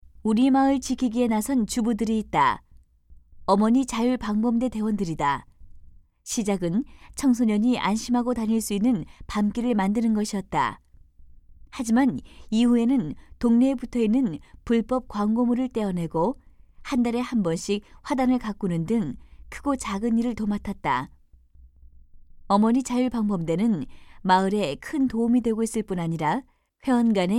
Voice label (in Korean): 내레이션; 도덕; 이웃에 대한 배려와 상호 협동